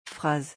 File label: "phrase", French